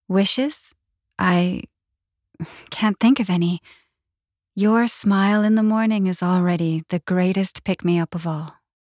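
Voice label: voice english; Berenica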